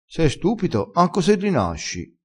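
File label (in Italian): se stupito, vocabolario dialettale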